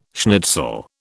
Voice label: "schnitzel", British